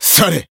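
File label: Voice finish Japanese; jade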